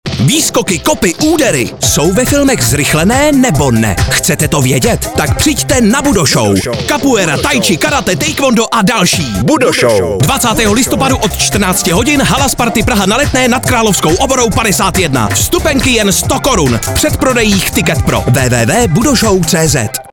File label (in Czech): reklama